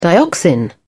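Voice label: "dioxin", British English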